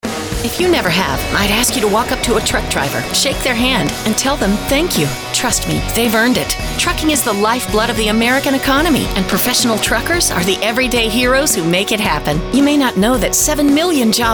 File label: Narrator